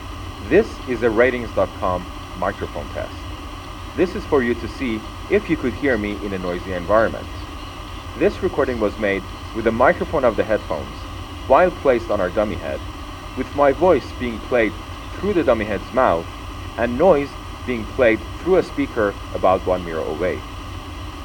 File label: dac noise